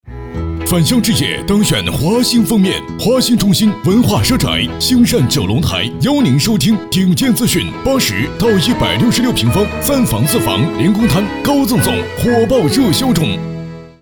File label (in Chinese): 广告 冠名; 星善九龙台